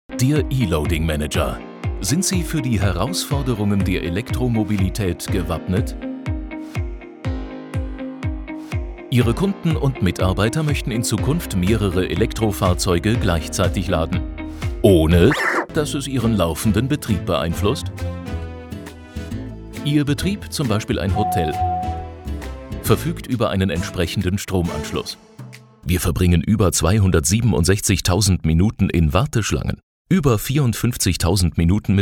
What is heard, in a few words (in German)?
Erklärfilm